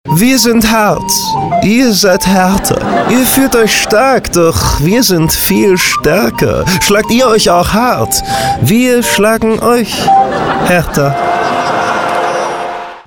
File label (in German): hoch lyrisch Rollen